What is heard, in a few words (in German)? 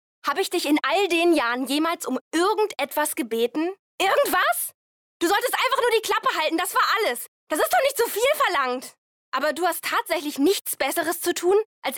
synchron enttaeuscht